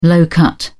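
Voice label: "low-cut", British English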